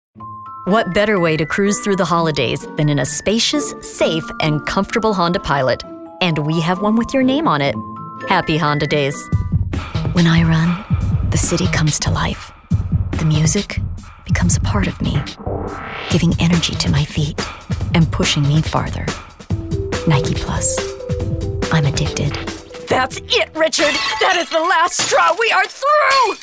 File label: women commercial